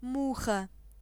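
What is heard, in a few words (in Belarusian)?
fly desc belarusian; insects; cartoon; tuxpaint-stamps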